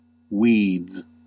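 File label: "weeds" English, US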